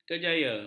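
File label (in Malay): "kerjaya", Malay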